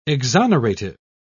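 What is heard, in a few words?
"exonerative", British English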